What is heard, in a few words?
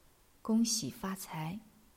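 "gong1xi3fa1cai2", Chinese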